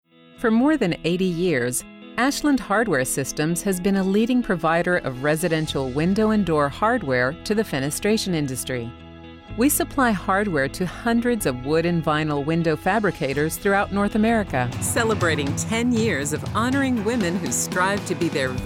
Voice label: Narr Eng